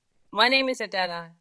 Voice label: Voice Acting es F